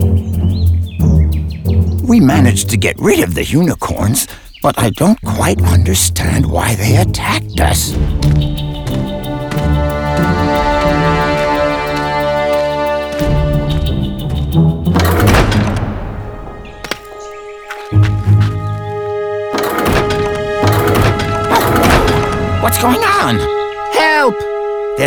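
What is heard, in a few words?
intro British; arthur and the revenge of maltazard; Wii; Intro Uk L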